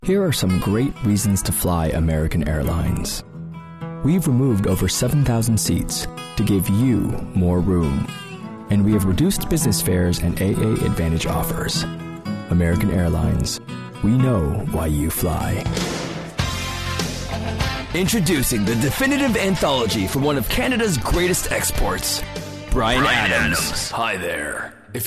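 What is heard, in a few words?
US commercial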